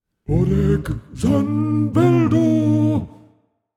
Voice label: incantation masculine demonic summon

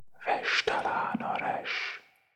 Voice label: incantations whispers masc forward standard; psfx

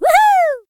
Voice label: casual voice "woohoo"; sonniss gdc

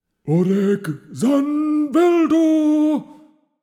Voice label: incantation masculine reverb summon; psfx

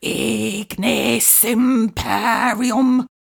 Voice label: slow, incantations older female fire spells

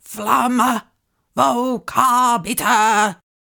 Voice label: flamma vocabitur slow, incantations older female; fire spells; psfx

